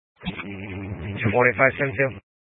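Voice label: Sheriff Dispatch, scanner audio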